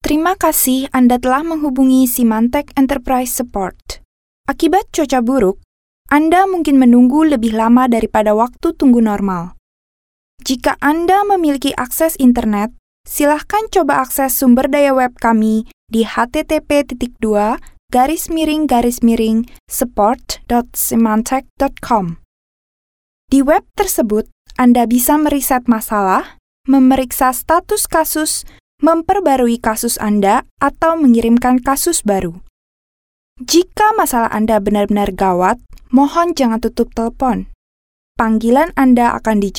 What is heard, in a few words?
Bahasa Indonesia IVR VO, Bahasa Indonesia Female